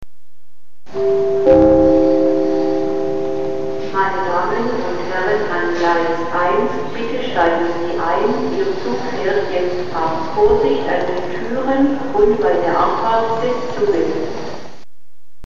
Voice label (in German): ansage